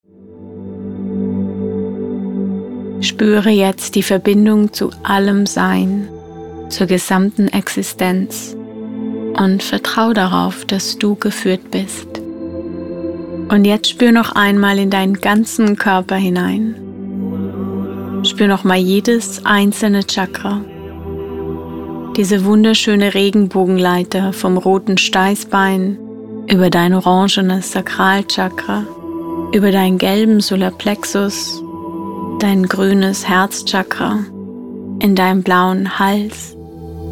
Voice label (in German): Chakren Meditation